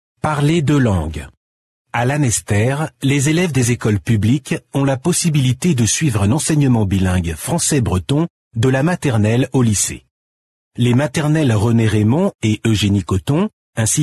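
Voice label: French, male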